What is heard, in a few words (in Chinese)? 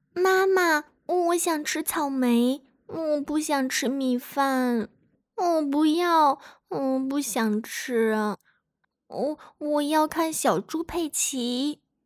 角色撒娇可爱小女孩